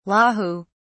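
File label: "lahu", British English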